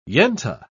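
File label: "yenta", British English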